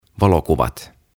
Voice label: "Valokuvat" "Photographs"; OTAVA KONSERNI; tuhatsanaa; aantamisohjeet suomeksi

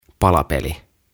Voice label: "Palapeli" "Puzzle"

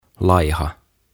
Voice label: "Laiha" "Thin"; OTAVA KONSERNI

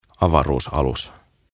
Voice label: "Avaruusalus", "Spaceship"

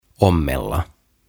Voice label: "Ommella" "Sew"

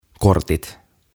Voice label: "Kortit" "Cards"

